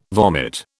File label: "vomit", British